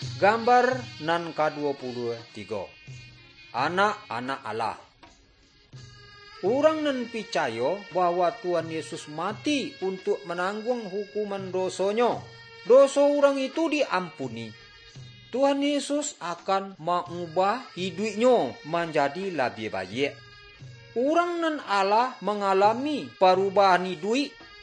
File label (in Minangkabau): good news minangkabau